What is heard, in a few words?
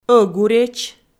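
"ӧгуреч", Komi, female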